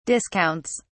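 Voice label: "discounts", English, British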